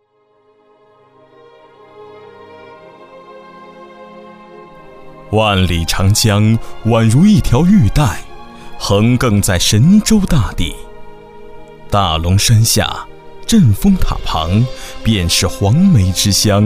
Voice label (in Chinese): 男 专题 法制, 国语男声配音 浑厚大气; 法制安庆